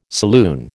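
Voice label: "saloon", American English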